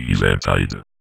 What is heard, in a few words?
Vocoder Words; Vengeance Samples